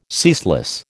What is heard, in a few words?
"ceaseless", American